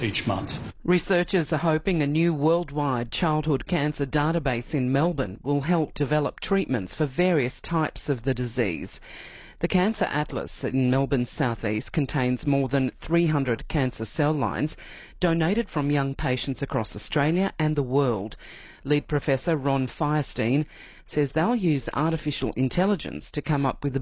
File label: ABC Radio News; CCMA